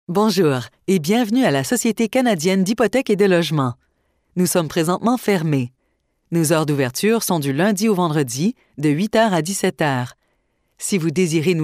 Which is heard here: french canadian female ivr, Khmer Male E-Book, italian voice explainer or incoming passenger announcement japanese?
french canadian female ivr